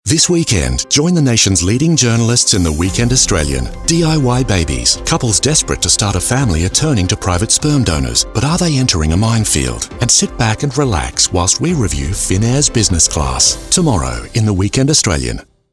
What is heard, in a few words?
Voice Actor; The Weekend Australian